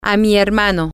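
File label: my brother, Spanish, female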